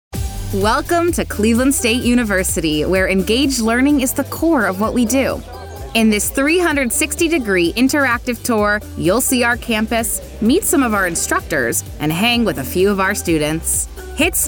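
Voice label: Narration, voiceover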